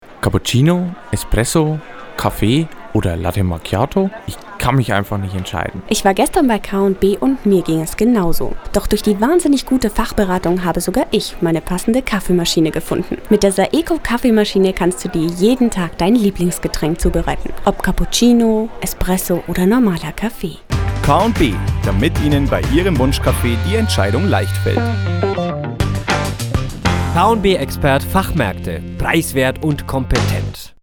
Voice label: Werbung; K+B; Saeco

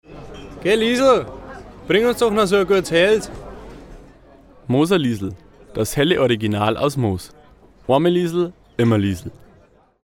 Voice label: Werbung Reminder; Arcobraeu

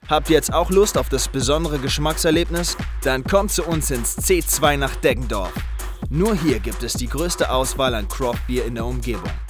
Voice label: Werbung Reminder

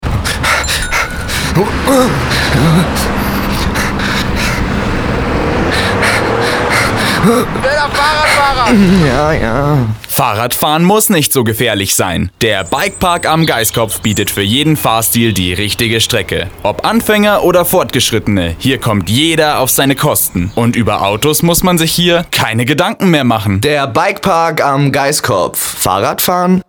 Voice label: Werbung; Irlbacher

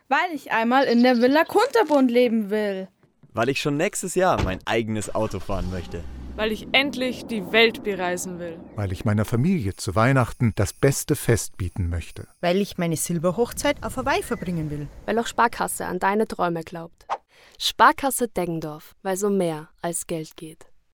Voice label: Werbung Sparkasse

